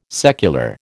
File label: "secular", American